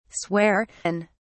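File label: "swear in", British English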